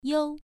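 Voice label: "you1", Chinese pronunciation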